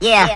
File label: "yeah" cartoon, voice